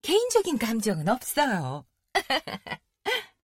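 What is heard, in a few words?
Korean; 回声（守望先锋2）; 终极技能; 개인적인 감정은 없어요. (웃음); 韩语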